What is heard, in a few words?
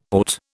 "AUT", British